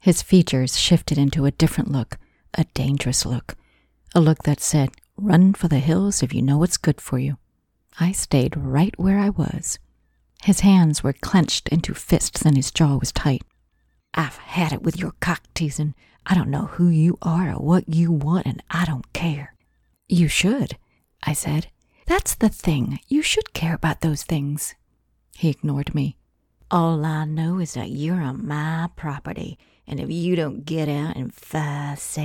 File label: Mystery thriller F M